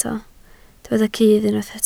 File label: "cudd", Welsh